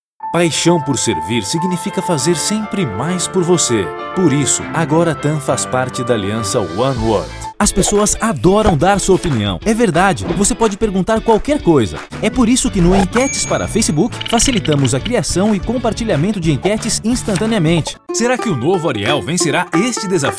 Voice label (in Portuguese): Portuguese Brazil